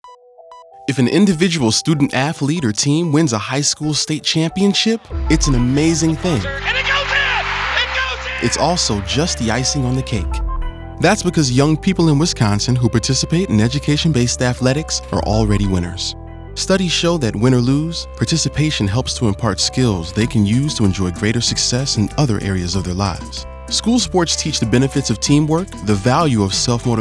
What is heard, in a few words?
audio spot